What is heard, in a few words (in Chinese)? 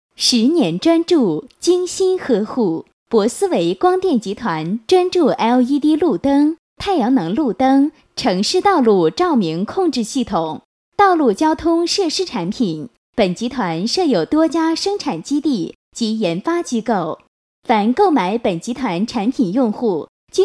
女 年轻甜美, 彩铃 中英彩铃; 博思维光电集团; 国语女声配音